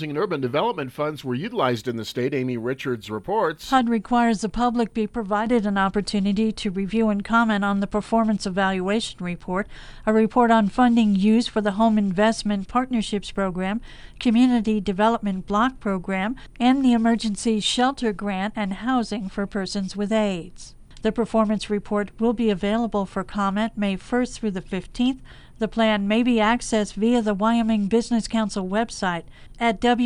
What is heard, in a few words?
radio news; wyoming